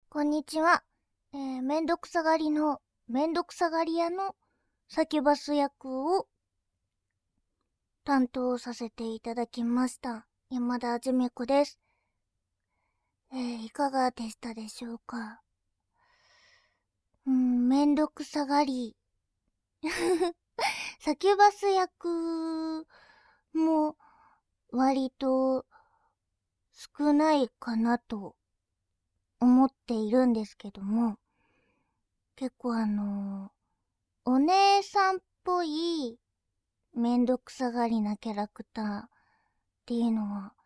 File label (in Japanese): フリートーク, ASMR; ぐ～たらサキュバス